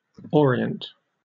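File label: "orient", British English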